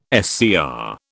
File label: "SCR", British